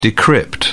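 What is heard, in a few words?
"decrypt", British English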